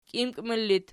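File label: "kimkmul" locative, Archi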